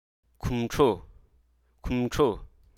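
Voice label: Hruso Aka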